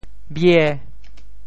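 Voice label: "bie5", Teochew; miáo; 白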